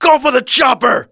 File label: panic, thug; SINDEMO; bank